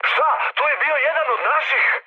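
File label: Serbian player killed ally mood high, speech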